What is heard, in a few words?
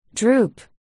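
"droop", spoken word, articulation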